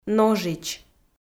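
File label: "ножич", Komi-Zyrian, female; audio dict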